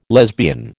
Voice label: "lesbian", American